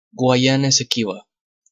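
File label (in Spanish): "Guayana Esequiba" Spanish, Peru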